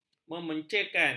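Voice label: "memencilkan", Malay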